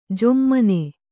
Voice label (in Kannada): "ಝೊಮ್ಮನೆ", kannada